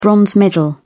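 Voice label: "bronze medal", British English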